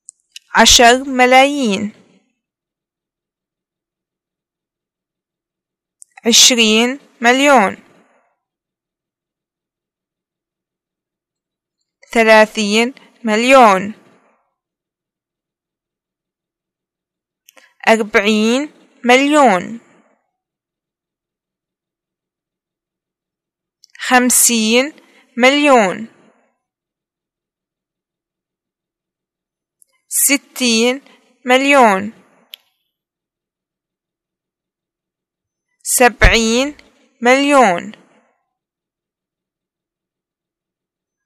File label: numbers 10 100, arabic, pl